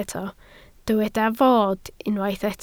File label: "fod", Welsh